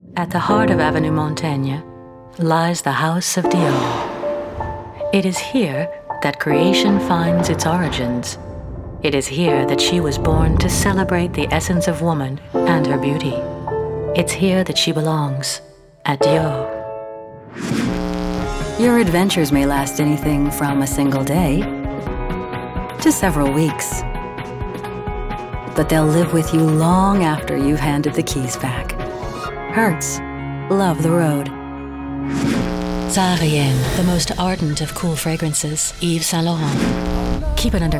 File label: Medley Commercial